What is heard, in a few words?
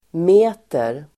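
"meter", Swedish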